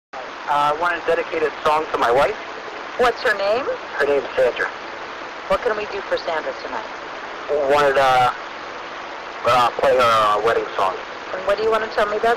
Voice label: Radio Bounce Talk; cavity; bouncing the 10 GHz signal off the metallic content of a certificate or even a piece of paper hanging on the wall